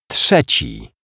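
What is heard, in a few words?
"trzeci", Polish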